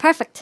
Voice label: "perfect", Irish; Worms Armageddon